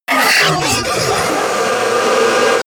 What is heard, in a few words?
crazy talk